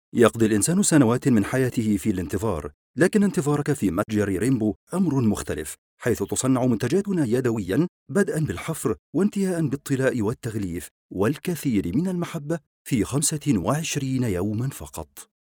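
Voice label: Arabic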